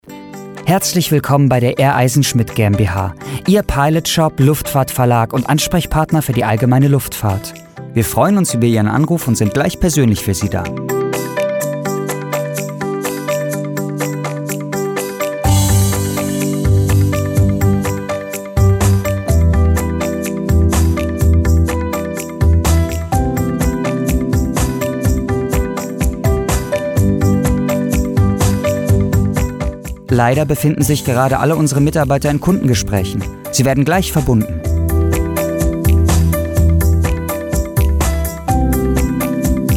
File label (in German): Fremdtake Freundlich